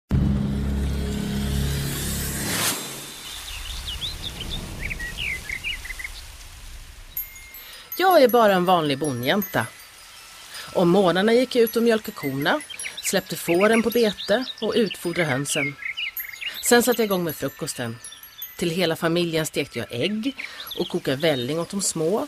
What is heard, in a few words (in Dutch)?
zweeds; seeland girl